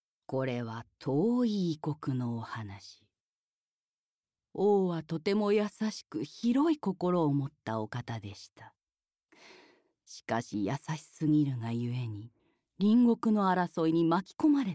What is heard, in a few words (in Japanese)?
ナレーション